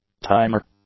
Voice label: "timer", English; nouns